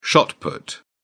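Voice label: "shot put", British English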